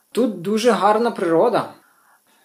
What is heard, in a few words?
dialogue, Ukrainian